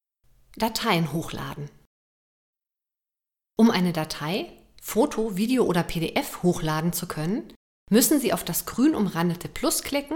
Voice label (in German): Erklaervideo; MZE